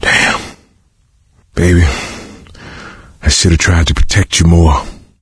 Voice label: survivor mourn producer, coach, survivor voice; source engine; left 4 dead 2